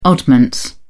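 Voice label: "oddments", English, British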